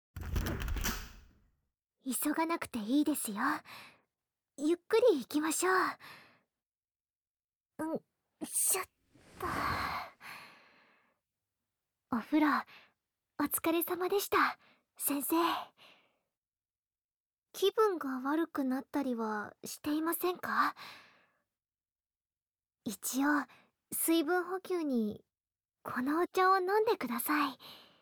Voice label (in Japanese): ドキドキ おやすみなさい…, ASMR